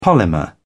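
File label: "polymer", British English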